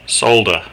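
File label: "solder", Australian English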